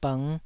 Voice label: "bng5", taiwanese syllables

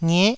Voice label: "ngie9", Taiwanese syllable

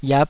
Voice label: "yap", Taiwanese

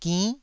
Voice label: "ginn9", taiwanese

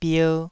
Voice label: "bier2", taiwanese Syllables

